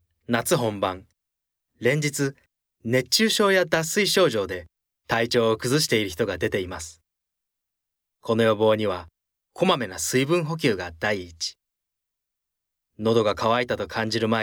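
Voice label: narration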